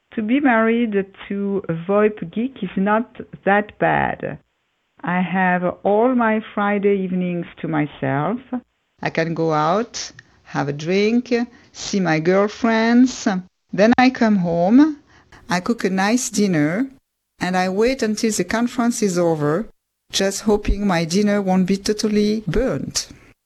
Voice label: English comparative version